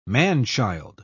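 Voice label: "man-child", English, uk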